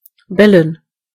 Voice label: "bark", de; gcompris